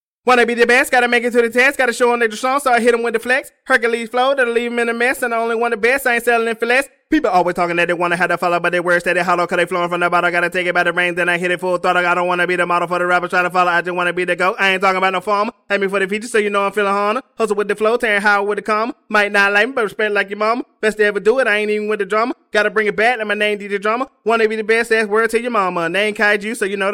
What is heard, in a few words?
rap 142 A sharp, acapella